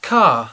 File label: "car", English; ve meste